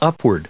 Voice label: "upward", English